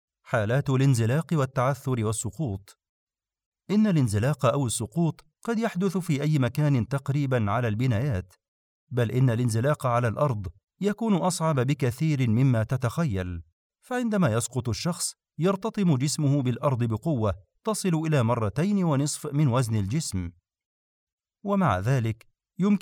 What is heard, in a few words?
Arabic Explainer Video Voice Overs